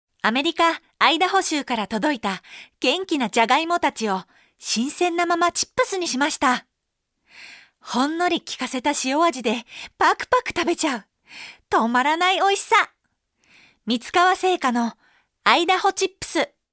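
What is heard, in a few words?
Voice Sample Narration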